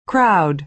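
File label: "crowd", American English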